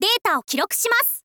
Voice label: info girl